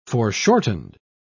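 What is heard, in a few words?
"foreshortened", British English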